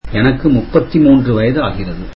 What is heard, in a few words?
tamil Im thirty three years old